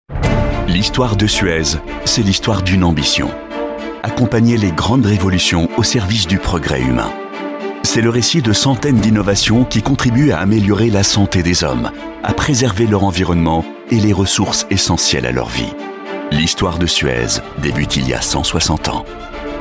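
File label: narr, male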